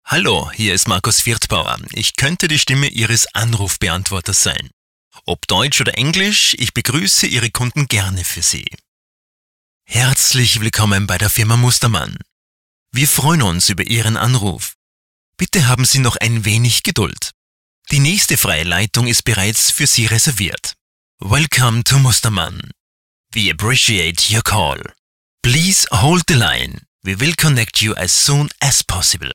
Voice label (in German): Telefonansagen; männliche Sprecher